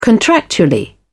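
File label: "contractually", British English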